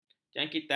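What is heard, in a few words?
"jangkitan", Malay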